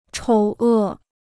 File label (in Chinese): "丑恶", Chinese